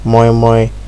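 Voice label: "moimoi", Nigerian Pidgin, male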